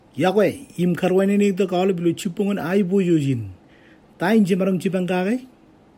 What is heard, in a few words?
Marshallese